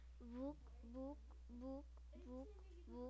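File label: "book", spoken word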